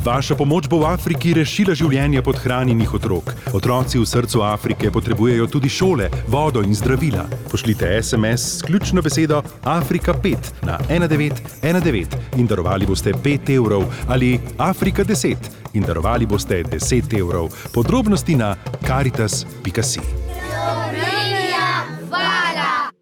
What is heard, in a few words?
RADIO; srce afrike